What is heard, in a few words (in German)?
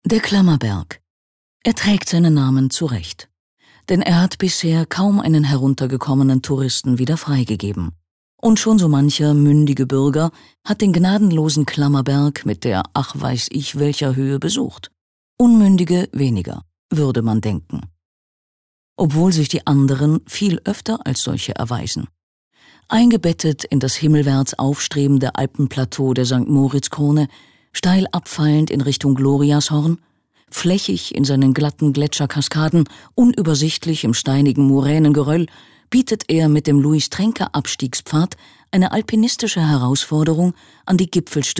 Kommentar, Neutral